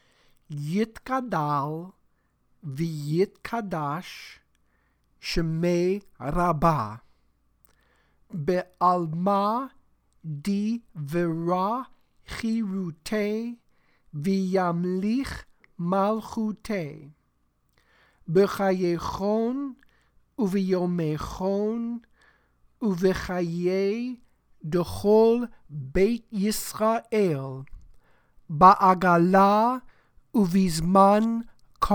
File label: Kaddish Recited; Hatzi Kaddish